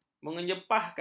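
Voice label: "menyepahkan", Malay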